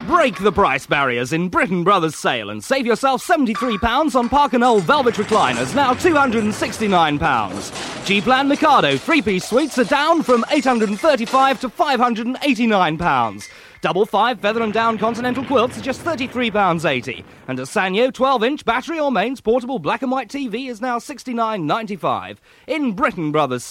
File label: Radio Advert; Radio Hallam; Britain Brothers